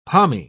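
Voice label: "pommy", British English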